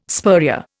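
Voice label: "spurrier", Indian English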